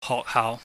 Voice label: "hohkhaauh", Cantonese

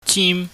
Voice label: "jim", chinese

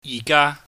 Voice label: "yih ga", Cantonese

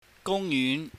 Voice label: "gungyuhn", Cantonese

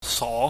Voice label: "so", pingyam

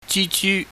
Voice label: "jijyu", Cantonese

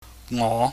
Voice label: "ngo", pingyam

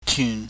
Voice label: "tyun", Chinese

